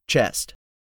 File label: "CHEST", English; 3000 words